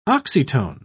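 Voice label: "oxytone", British English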